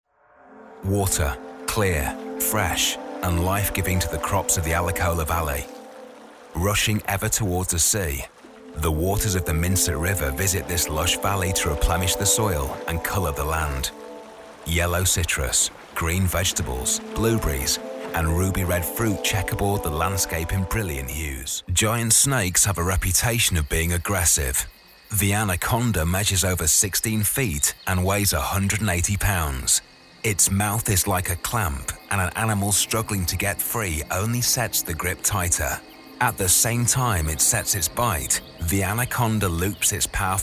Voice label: narration

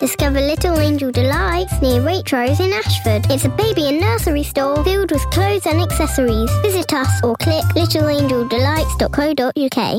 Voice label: child vo 7 y.o. girl

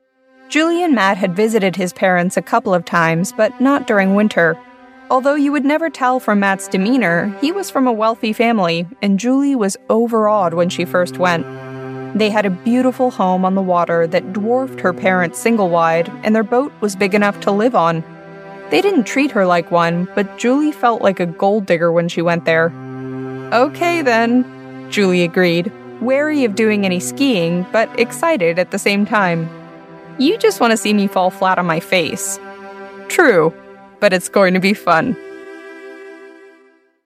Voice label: audiobook

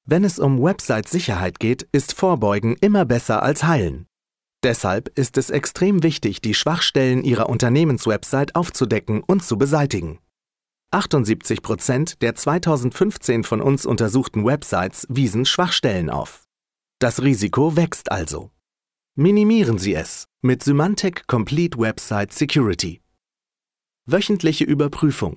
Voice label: german male corporate

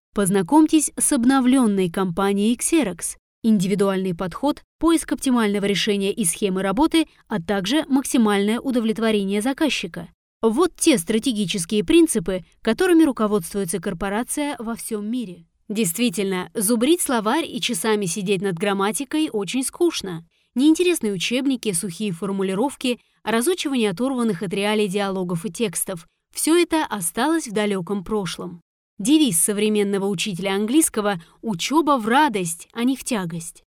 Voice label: russian female corporate

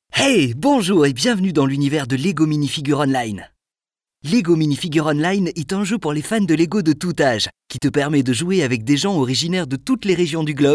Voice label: commercial

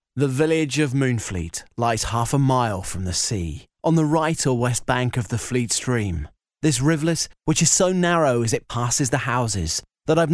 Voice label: dry sample

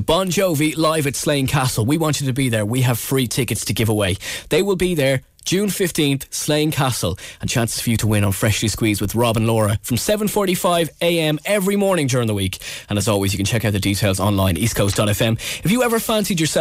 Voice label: irish male radio presenting

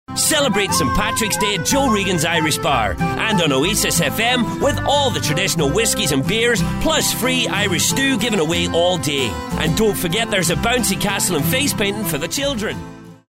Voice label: irish

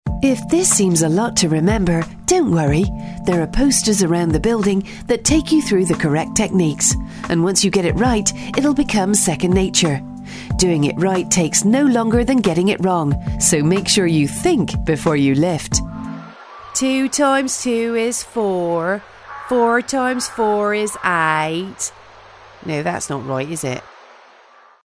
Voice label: westcountry

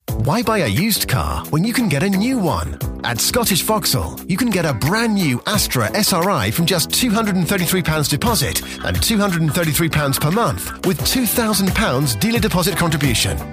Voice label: commercial

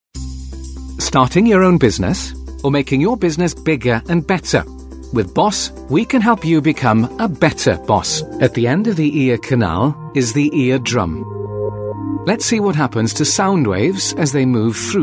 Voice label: welsh male welsh accent corporate

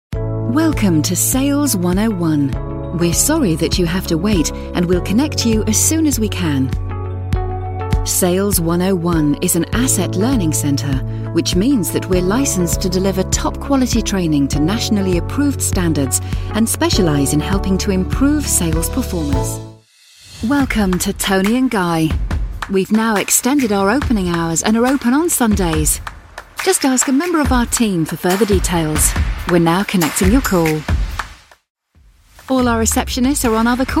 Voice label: on-hold

